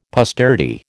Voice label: "posterity", American

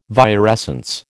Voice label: "virescence", American

